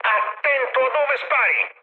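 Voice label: Italian player friendly fire mood med, speech; War Thunder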